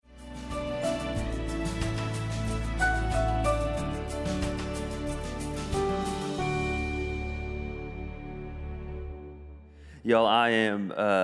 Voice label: Sermon English